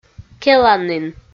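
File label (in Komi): "келанін", Komi, female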